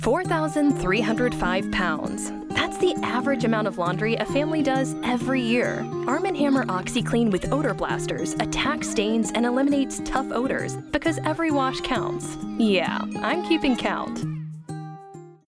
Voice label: Mom Honest Authentic Sassy